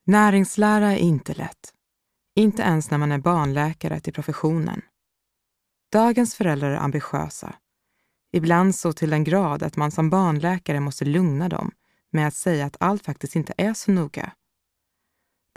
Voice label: Swedish Audiobook

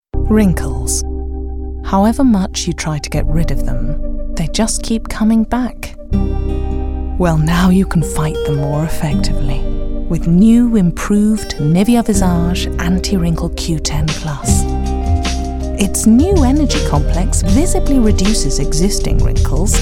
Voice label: Commercial

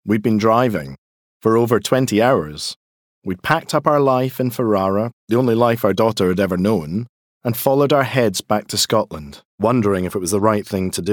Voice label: Narrative

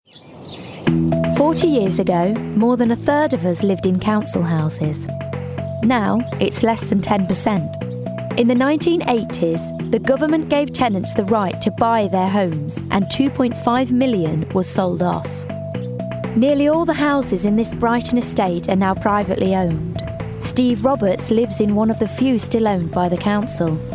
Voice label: Narrative

